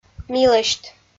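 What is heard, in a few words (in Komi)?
"милӧсьт", Komi, female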